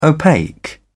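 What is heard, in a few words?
"opaque", British English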